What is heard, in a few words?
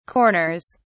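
"corners", British English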